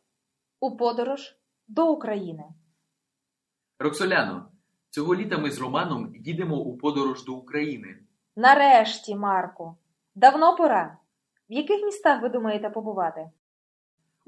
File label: Dialogue, Ukrainian; beginnersukrainian